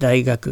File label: Japanese daiaku dictionary form; spontaneous speech